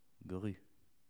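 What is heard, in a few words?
"grue", French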